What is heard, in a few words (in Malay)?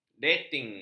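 "deting", Malay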